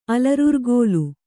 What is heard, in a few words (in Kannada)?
"ಅಲರುರ್ಗೋಲ", Kannada